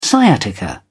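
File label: "sciatica", British English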